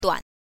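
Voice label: "duan", Mandarin Chinese, neutral tone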